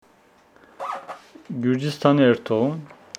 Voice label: Homshetsi i will go to georgia